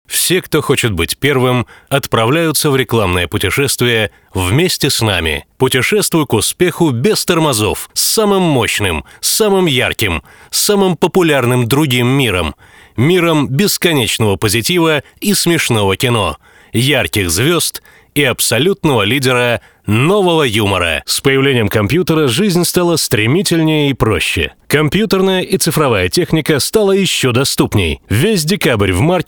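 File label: Russian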